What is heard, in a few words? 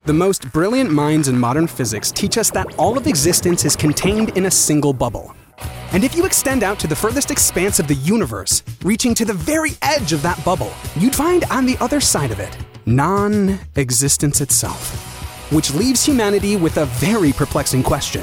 Commercial; Nasa Expand Into The Unknown